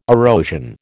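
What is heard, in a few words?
"erosion", American English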